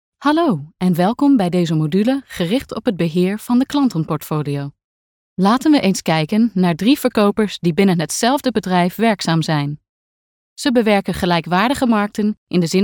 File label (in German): Nachvertonung Film Sprecherin Niederländisch